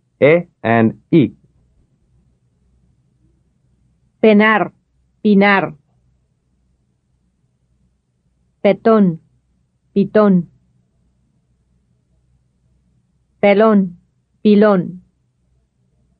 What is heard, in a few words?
Contrasting Spanish vowel sounds - e and i